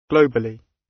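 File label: "globally" British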